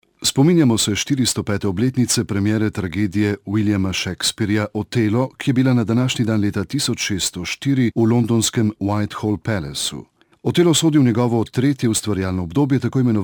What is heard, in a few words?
locutor esloveno slovenian male voiceover